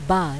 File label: gujarati